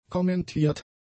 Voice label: "kommentiert", German; adjectives

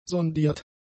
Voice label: "sondiert", german; adjectives

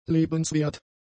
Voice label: "lebenswert", German; adjectives

